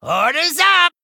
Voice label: Male Gnome Attack

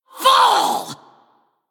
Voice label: Male Gnome Attack

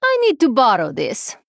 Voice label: Female Draenei

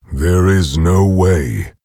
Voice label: Orc Emote ERROR GENERIC

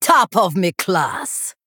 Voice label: Female Troll Attack

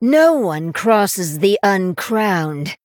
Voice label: Female Human

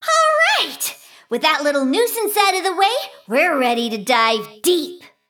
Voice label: Female Mecha Gnome; Pre Mission3

